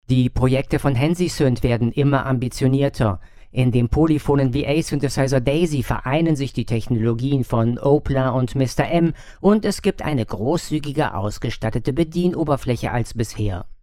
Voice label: Default Robot mittel; Mackie DLZ Creator XS

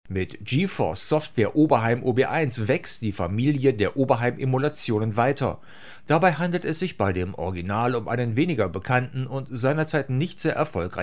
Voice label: Rode Interview Pro Besprechen 45 Grad 15cm

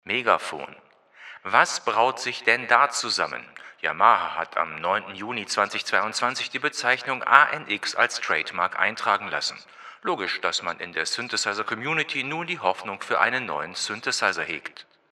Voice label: Rode RODECaster Pro II Stimme Megaphon

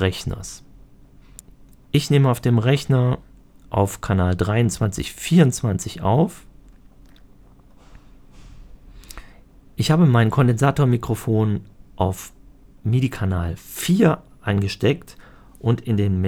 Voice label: Tascam Model 2400 preamps via usb nur voice

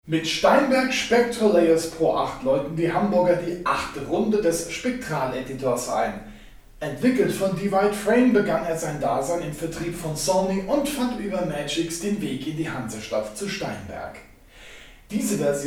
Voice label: viel Raumhall unbearbeitet; steinberg spectral layers pro 8

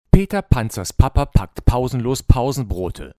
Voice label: Plosivtest; Shure SM4; ohne Popfilter; 5 cm; 38dB

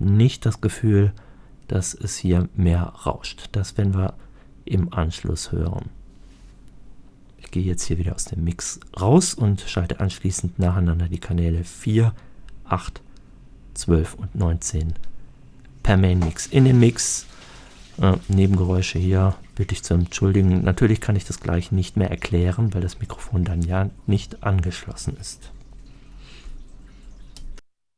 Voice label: Tascam Model 2400 preamps via usb nur voice

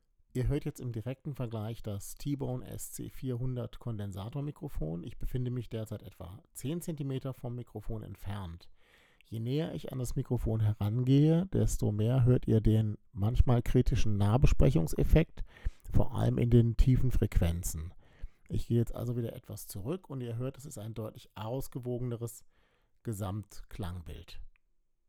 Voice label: Warm Audio WA-19 Sprache t.bone SC400

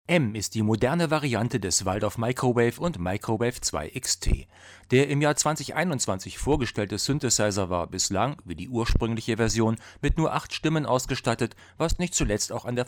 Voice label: iRig Stream Mic Pro Niere ohne Pop 20 cm Gain dreiviertel

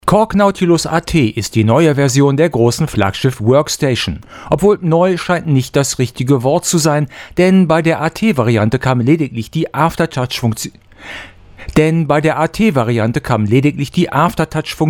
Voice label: Zoom F8n Pro Trim 70 IL On HPF Off unbearbeitet

